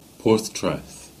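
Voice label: "Portreath", Cornish; place-names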